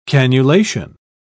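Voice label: "cannulation", British English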